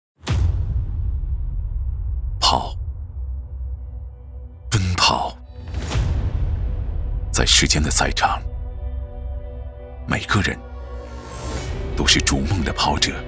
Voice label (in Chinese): 国语男, 专题片 企业; 腾讯